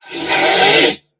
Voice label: Threatened Crewmen; Warframe; Corpus Crewmen; Crewman E; Dialog Barks Battle